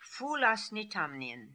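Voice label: "Foolasnitamnian", pronunciations; Fourth Way; Beelzebub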